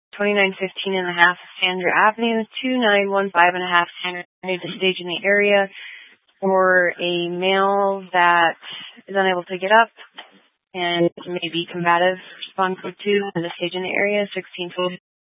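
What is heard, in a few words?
Fire Dispatch, Scanner audio recording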